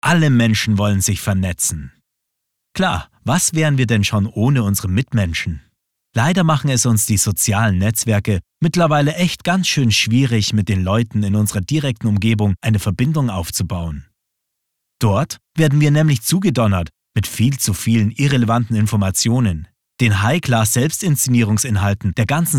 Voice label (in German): GER M, Demo; Mitwelt